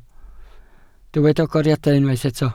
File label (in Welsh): "agoriadau", Welsh